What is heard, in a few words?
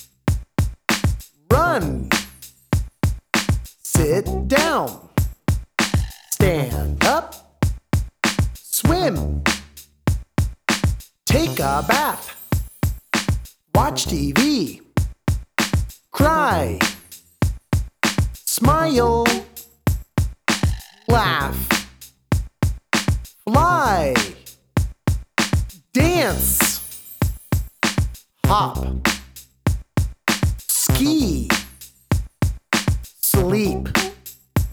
C Verb Chant English